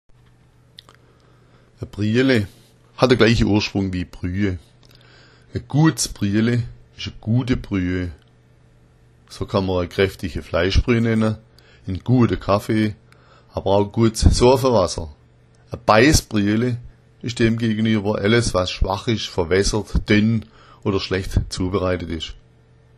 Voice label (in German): briale, schwaebisch